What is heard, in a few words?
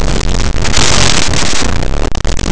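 "burla", Spanish; laboratori fonetica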